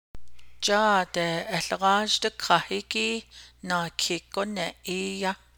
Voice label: navajo, I placed second in the leg race